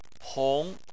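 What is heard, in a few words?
"hong", Chinese, second tone; Chinese Surnames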